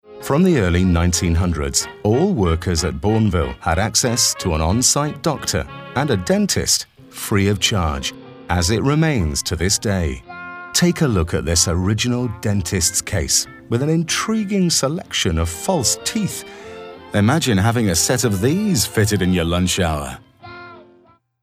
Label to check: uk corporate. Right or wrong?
Right